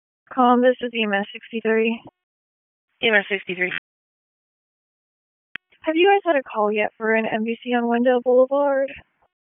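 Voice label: EMS Dispatch, p25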